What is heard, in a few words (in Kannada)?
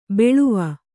"ಬಾಳುವೆ", Kannada